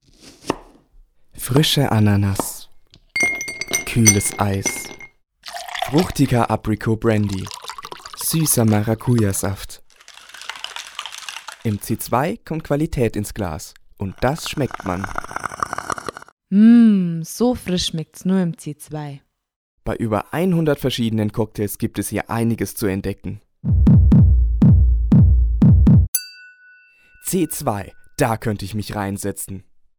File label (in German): Werbung